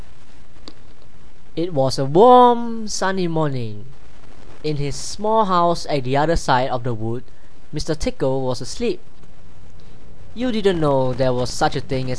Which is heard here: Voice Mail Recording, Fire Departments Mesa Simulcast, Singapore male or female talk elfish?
Singapore male